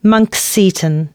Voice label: announcements, female